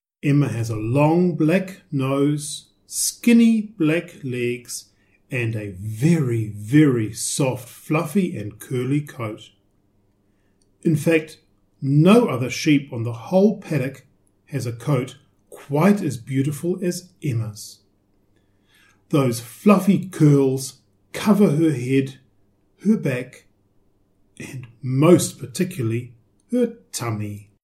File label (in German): englisch